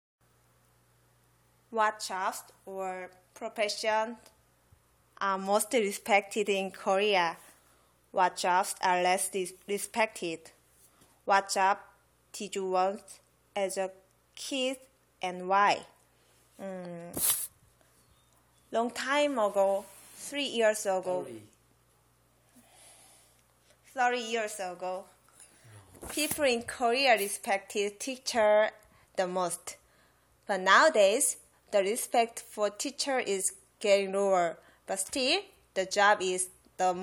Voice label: Korean female; jobs